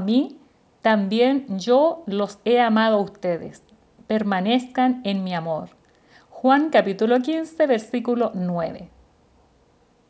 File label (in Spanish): devocional